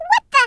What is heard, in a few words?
"What the", Brooklyn; Worms Armageddon